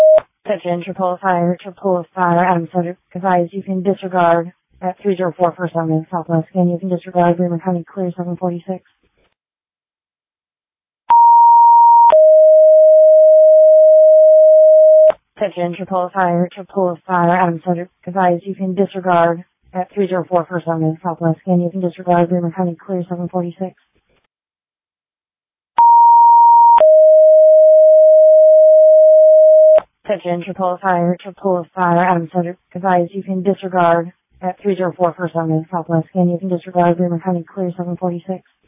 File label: Tripoli Fire, dispatch